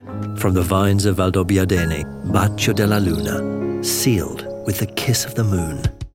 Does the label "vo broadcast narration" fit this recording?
No